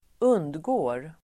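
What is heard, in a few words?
"undgår", Swedish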